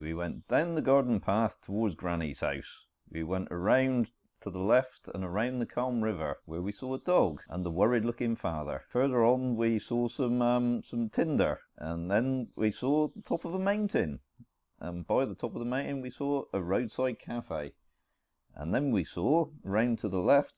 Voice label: Cornwall accent; Penzance (Cornwall), England; Accent Quiz